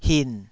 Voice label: "hin3", taiwanese